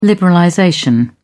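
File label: "liberalization", British English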